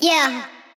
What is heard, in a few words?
"Yea" vox; Cymatics; PHARAOH Beta Pack